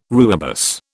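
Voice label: "rooibos", British English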